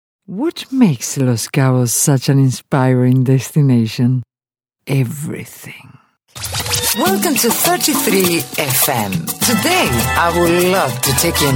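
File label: English Commercial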